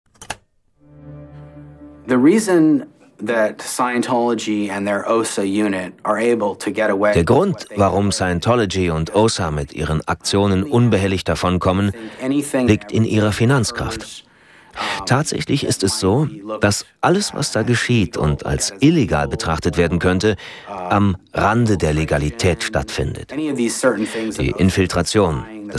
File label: VoiceOver Imagefilm